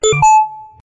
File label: "oye", notification